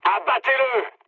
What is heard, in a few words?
attack position mood med, speech fr; War Thunder